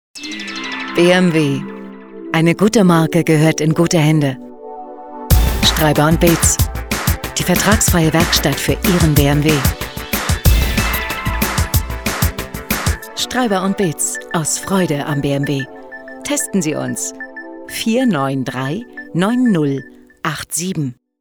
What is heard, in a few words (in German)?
funkspot, bmw